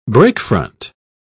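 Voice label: "breakfront", British English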